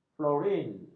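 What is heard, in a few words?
"fluorin", Malay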